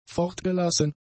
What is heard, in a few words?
"fortgelassen", German; adjectives